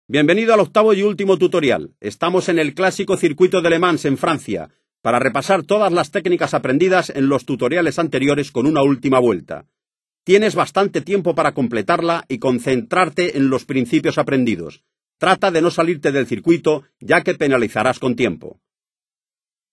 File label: singlelap spanish; MotoGP 3